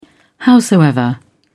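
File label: "howsoever", British English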